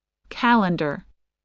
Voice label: "calendar", spelling

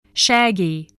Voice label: "shaggy", spelling

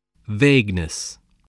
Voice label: "vagueness", English

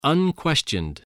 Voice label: "unquestioned", English spelling voice

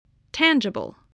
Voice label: "tangible", English

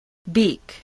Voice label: "beak", spelling

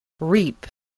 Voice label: "reap", english

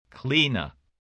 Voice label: "cleaner", voice

